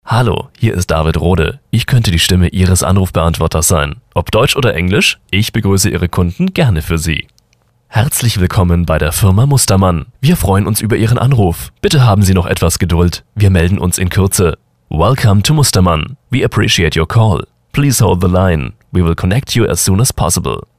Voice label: Sprecher Telefonansagen

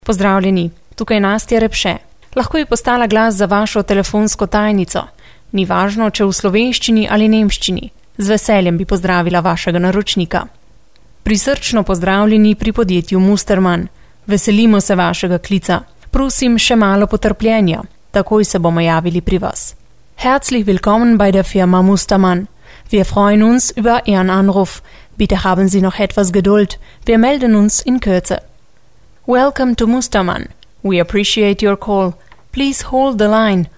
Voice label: Sprecher Native speaker

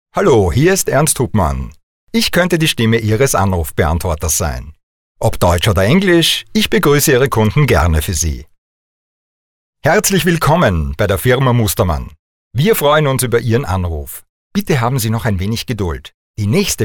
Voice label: Sprecher Telefonansagen

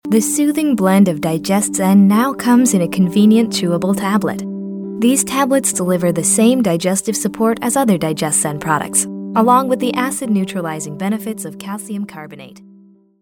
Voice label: Sprecher Native speaker

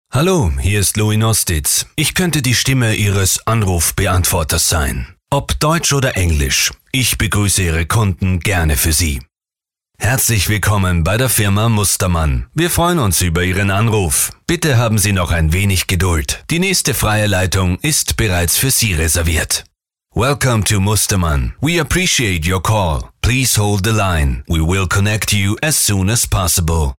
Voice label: Telefonansagen; männliche Sprecher; Werbestimmen und Dialekte

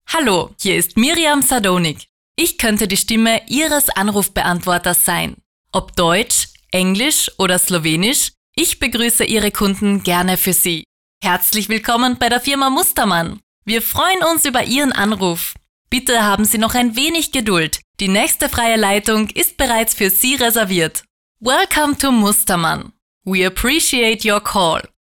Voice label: Telefonansagen

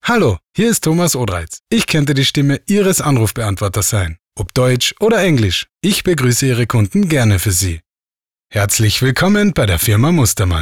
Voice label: Telefonansagen

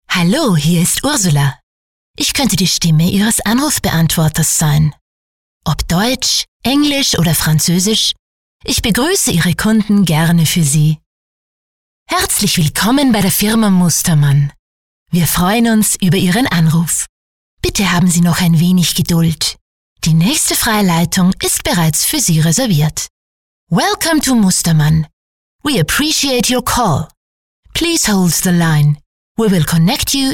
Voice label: Telefonansagen

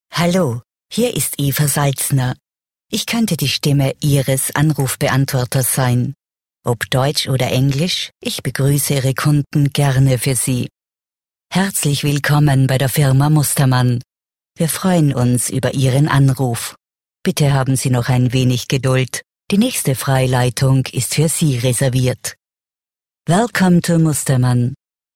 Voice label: Sprecher Telefonansagen

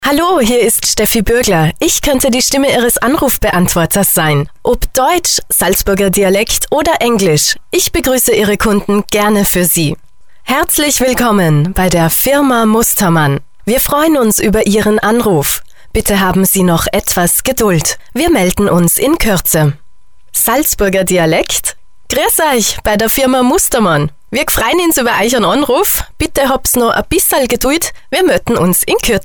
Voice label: Sprecher Telefonansagen

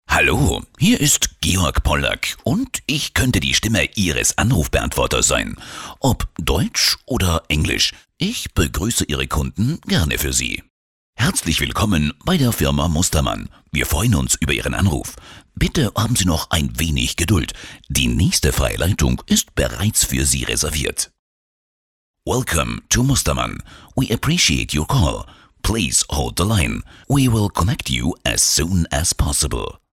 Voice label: Telefonansagen

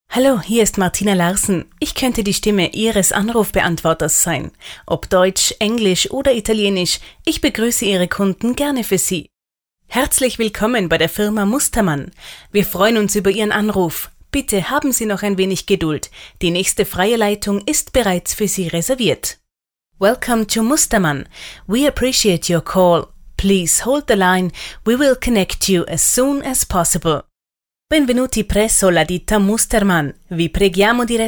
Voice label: Sprecher Telefonansagen

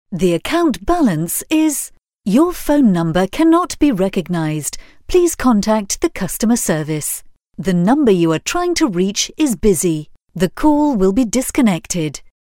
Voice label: Sprecher Native speaker

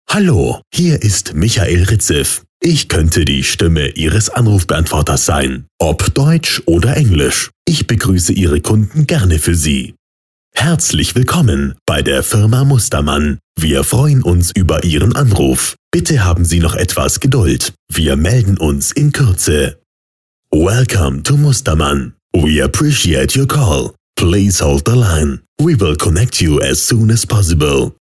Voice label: Sprecher Telefonansagen

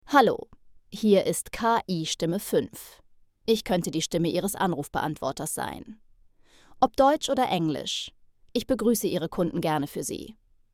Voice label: KI-Stimme

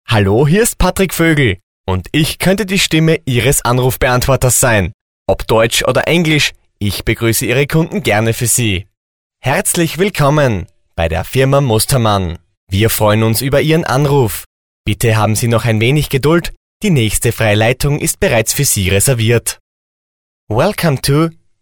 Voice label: Sprecher Telefonansagen; Professionelle Sprecher

